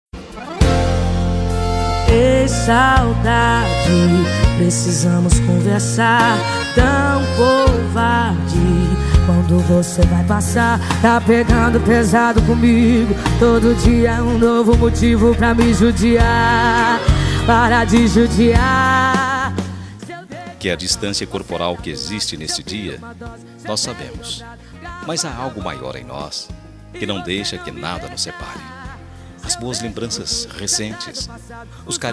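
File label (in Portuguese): NAMORADOS DISTANTES male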